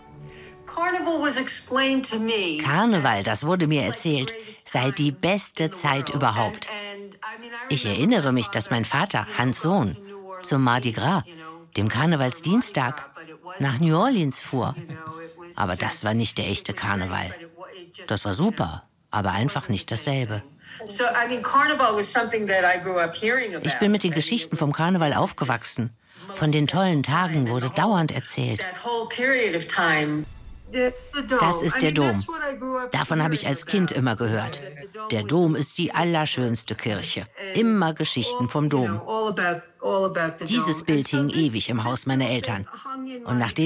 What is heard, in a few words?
Voiceover; Schalom und Alaaf